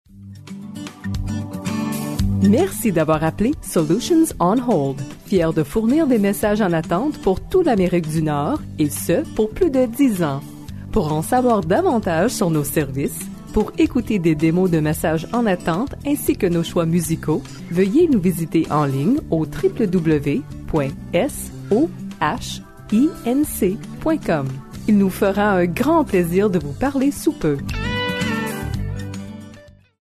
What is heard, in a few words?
French